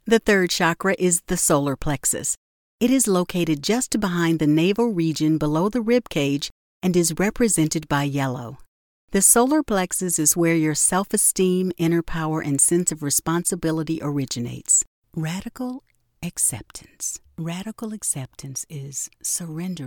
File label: Narration